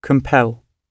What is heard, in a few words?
"compel", gb